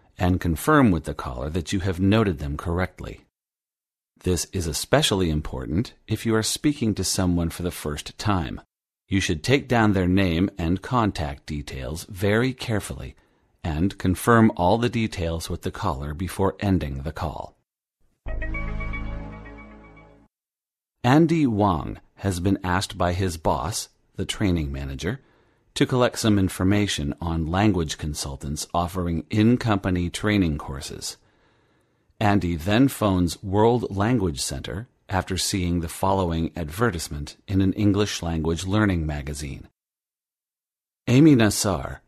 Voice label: telephone podcast